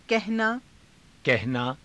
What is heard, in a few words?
"kahanaa", Hindi